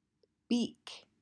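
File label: "Beak", English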